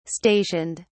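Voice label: "stationed", British English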